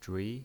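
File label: "zhui" Mandarin Chinese pinyin voice, second tone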